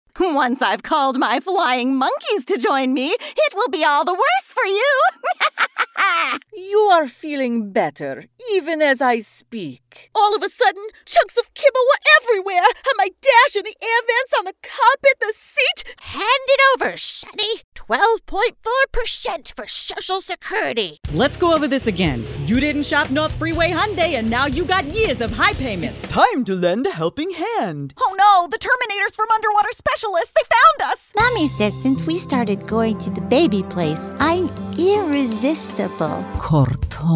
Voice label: CHARACTER